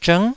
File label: "zhng9", taiwanese